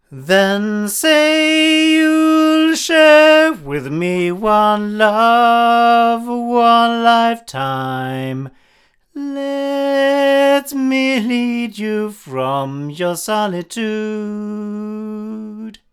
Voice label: Sontronics Helios 8 male, microphones recordings